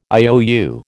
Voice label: "IOU", American